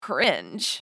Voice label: Cringe meme voice female